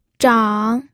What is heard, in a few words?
"zhang3", pinyin